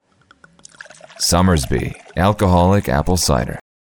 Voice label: english promos